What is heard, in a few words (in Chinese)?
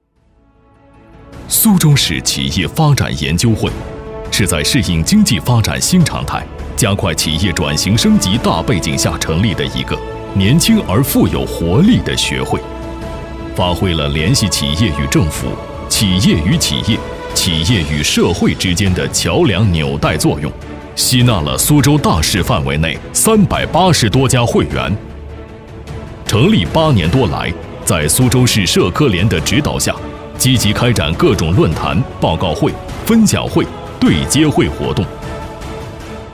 男 宣传片 企业专题